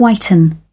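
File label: "whiten", British English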